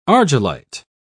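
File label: "argillite", British English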